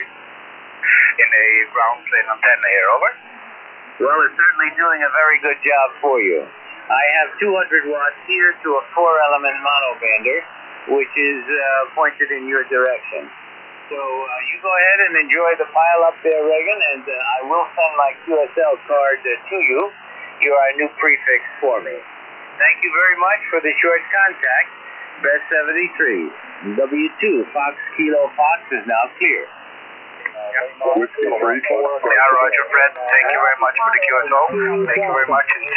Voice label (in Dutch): zendamateurs USB